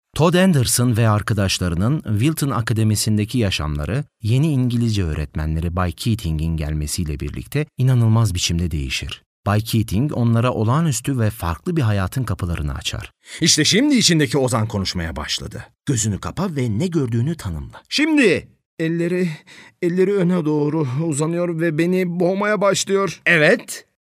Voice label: Turkish audio book, male

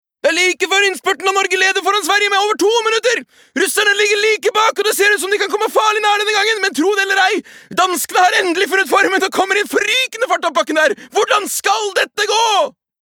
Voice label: no character commentator, mann; norsk

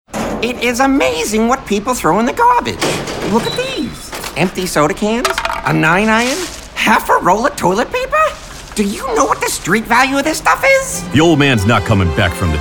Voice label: us character, mann; engelsk-us

